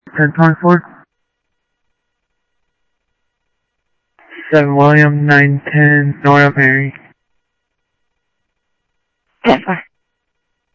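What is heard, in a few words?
Sheriff Lake Patrol, scanner audio trunk; ThompsonPeakScot; MCSO Lakes 1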